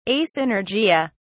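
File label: "asynergia", British English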